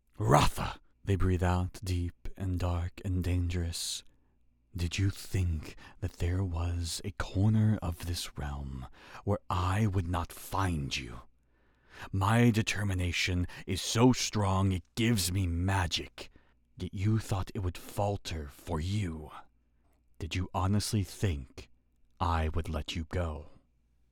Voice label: Narration Sample